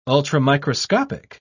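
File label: "ultramicroscopic", British English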